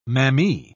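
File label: "mammee", English, uk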